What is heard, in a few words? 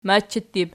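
"machattur", plural, Archi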